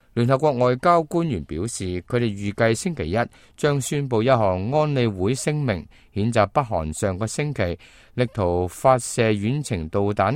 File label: cantonese, news